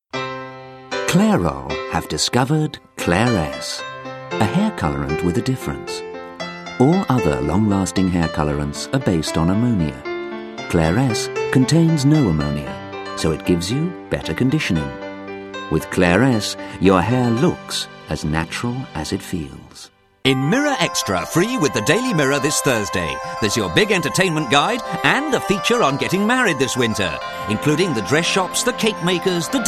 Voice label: Welsh

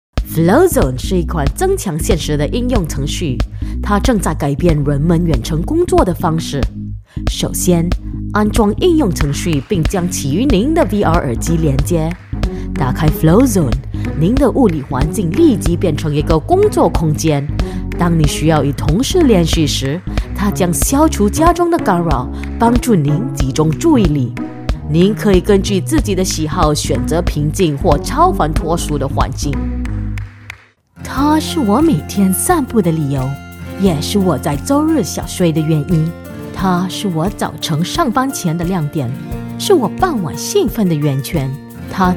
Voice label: Mandarin

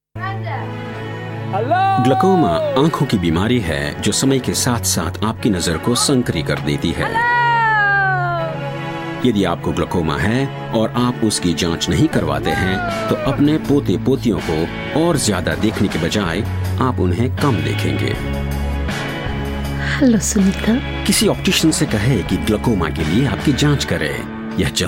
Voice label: Hindi

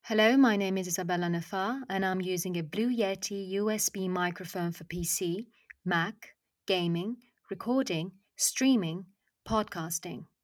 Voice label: Italian

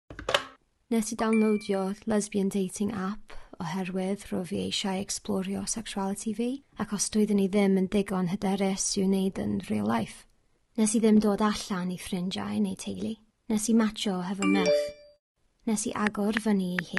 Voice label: Welsh Speaking

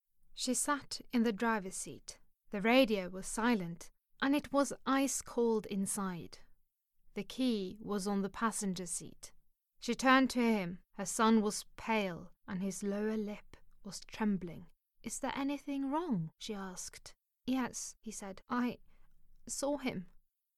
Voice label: English Speaking Voice

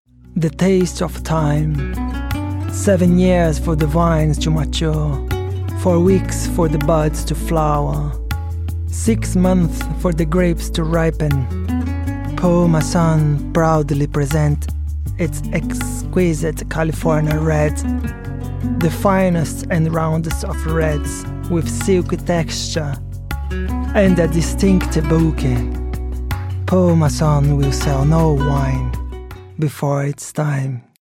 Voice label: English, Portuguese-Brazilian, Male, 20s-30s

